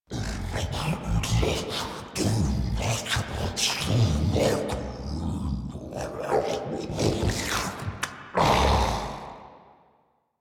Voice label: Idle Grineer Ghoul Devourer, en